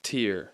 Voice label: "tear", English